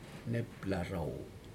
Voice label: "neplaro", april, Aneityum